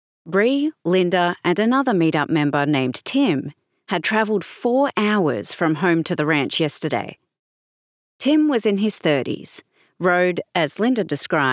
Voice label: Audiobook HORROR SUPERNATURAL Third Person Australian; accents characters age voice samples; Lights In The Sky